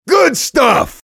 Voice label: mobster positive vocalization